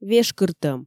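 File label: "вешкыртӧм", Komi, female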